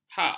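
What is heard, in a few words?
"hak", Malay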